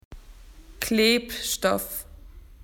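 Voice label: "glue", Australian English; PictureDictionary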